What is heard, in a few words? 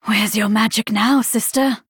Female Night Elf Hero Greetings